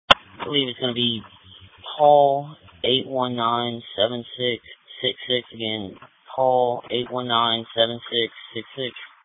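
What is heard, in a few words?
Police Patrol (Dispatch)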